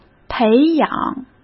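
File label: "培养", Mandarin Chinese; hsk; chinese-flashcards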